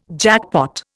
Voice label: "jackpot", Indian